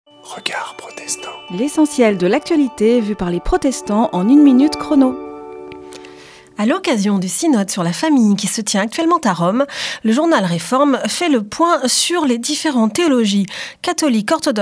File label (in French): Spot radio; Regards protestants